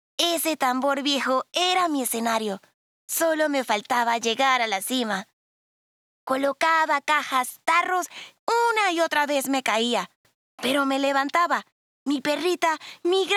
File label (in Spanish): Propuesta de voz caracterizacion niño